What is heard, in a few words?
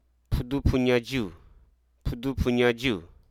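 Hruso Aka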